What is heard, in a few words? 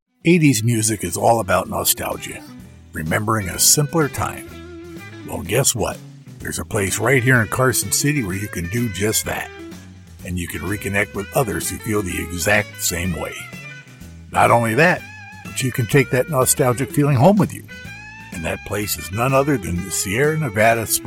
Regional Commercial Sample; Sierra Nevada